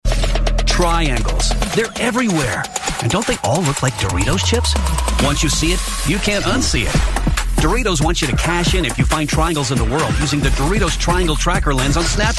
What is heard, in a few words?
Commercial Announcer